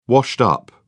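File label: "washed-up", British English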